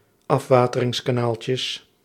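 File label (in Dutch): "afwateringskanaaltjes", Dutch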